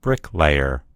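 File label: "bricklayer", American English; gcompris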